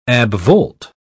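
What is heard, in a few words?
"abvolt", English, British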